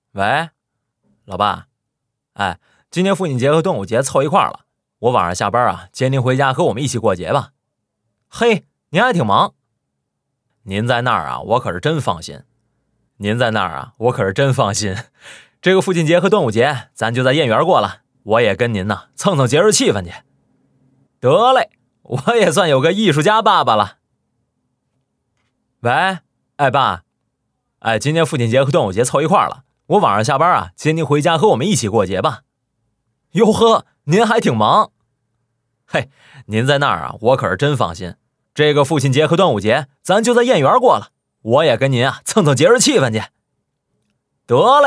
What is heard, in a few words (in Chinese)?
北京方言 男 角色 京腔; 方言配音